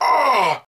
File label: hurt; spackenmobs